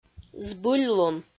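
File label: "збыльлун", Komi, female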